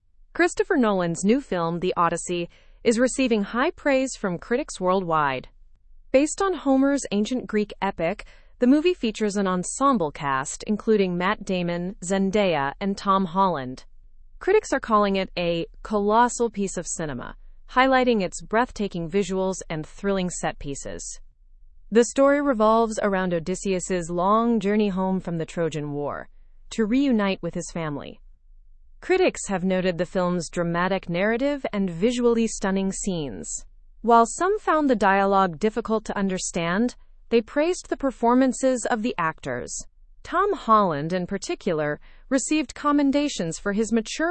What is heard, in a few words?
english through news upper intermediate; the odyssey by christopher nolan wows critics